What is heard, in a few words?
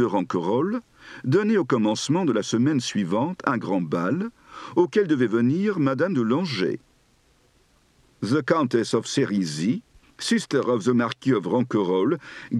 French, Accent